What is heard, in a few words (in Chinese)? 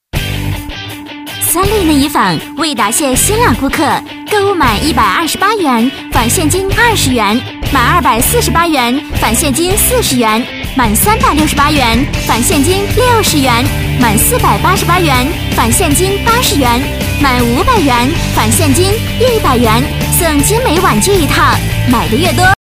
广告 促销 激情; 三立内衣坊